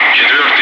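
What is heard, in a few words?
Russian loud; Wingman; DCS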